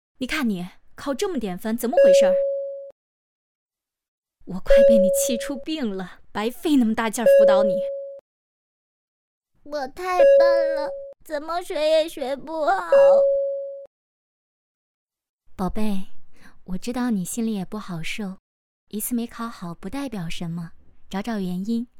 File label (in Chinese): 剧本 短剧配音